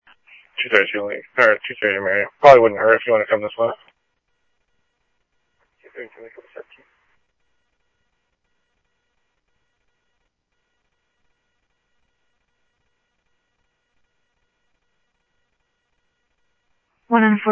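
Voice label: Phoenix police department, scanner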